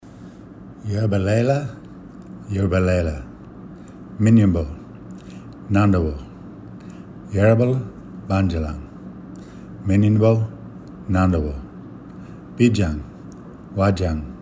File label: speaking verse